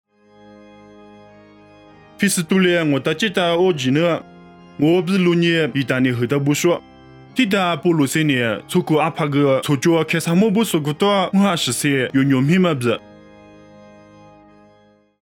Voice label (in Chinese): 凉山彝语; 地中海意大利; nuosu